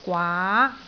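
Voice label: "gwaa2", Cantonese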